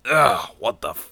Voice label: wounded, bodyguard